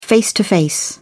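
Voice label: "face to face", British English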